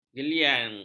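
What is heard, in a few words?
"geliang", Malay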